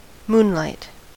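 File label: "moonlight", US English; pronunciations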